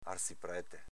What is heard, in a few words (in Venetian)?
"arziprete", Veneto; s.m. arciprete, parroco; arziprčte